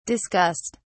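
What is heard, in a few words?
"discussed", British English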